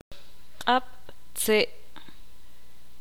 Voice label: "palo", ixil

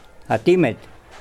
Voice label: Nawat palabras piojo; pipil

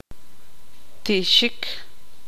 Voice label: "derramarse", tzutujil

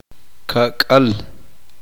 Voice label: "cuarenta", uspanteko

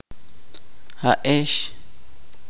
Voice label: "vosotros ustedes", chuj

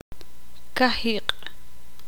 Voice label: "viento", ixil

